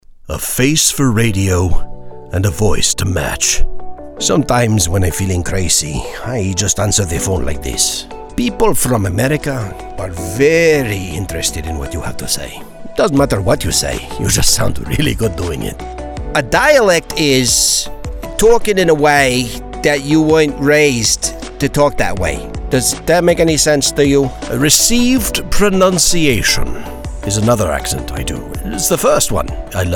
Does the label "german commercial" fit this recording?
No